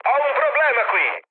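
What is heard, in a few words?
Italian aircraft damaged mood med, speech; War Thunder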